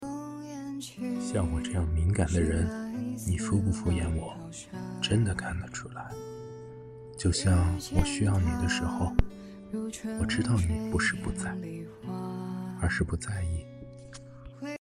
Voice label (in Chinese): 情感自诉